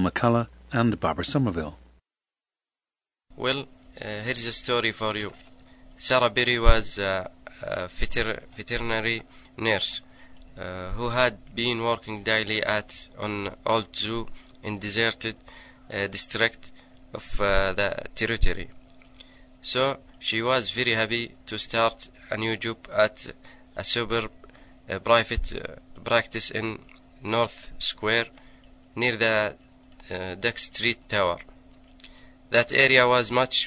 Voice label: saudi arabia, dialects